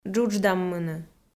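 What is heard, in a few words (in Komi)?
"джудждаммыны", Komi, female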